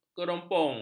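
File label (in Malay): "keropong", Malay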